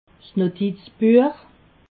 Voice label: "notizbuech", alsace

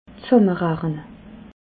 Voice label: "zsammerachne", alsace

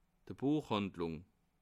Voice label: "buechhandlung", alsace

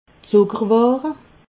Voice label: "zuckerware", alsace

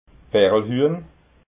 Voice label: "perlhuehn", alsace

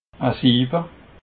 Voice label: "sieb", alsace

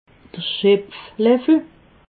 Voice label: "schepfleffel", alsace

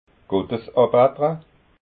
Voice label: "gottesbattera", alsace

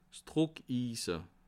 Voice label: "druckise", alsace

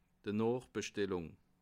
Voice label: "noochbstellung", alsace

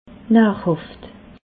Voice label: "nahrhaft", alsace

